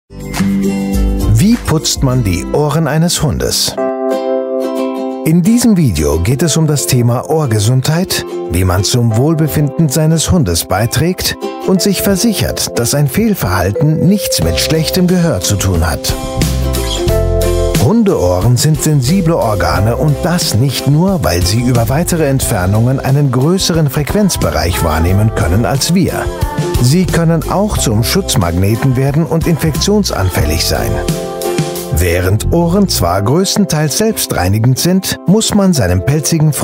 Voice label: german voice over male elearning

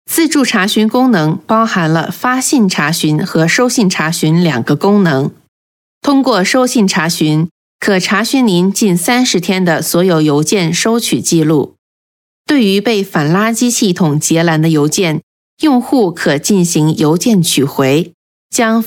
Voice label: chinese voice over female narrator

